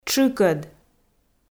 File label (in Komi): "тшыкӧд", Komi